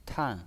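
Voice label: "tan", Mandarin Chinese pinyin, fourth tone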